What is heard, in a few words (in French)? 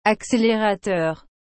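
"accelerateur", French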